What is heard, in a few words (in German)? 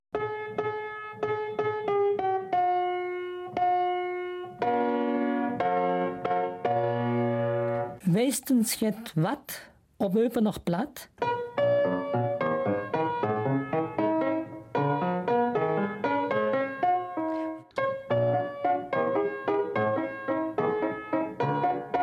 Eupener Mundart; Ver sönnt noch neet lanns Schmétts Bakkes